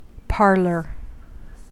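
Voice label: "parlor", US English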